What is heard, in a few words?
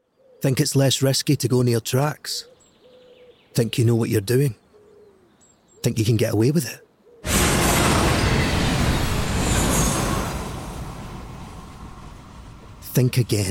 Commercial; Network Rail